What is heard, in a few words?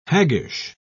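"haggish", British English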